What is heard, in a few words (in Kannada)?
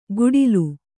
"ಗುಡಿಲು", kannada